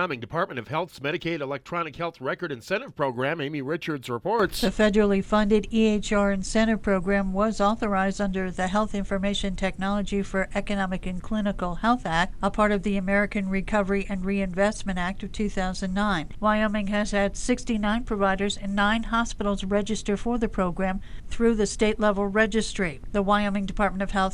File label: radio news; wyoming